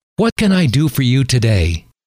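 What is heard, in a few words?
what Can I Do For You Today, male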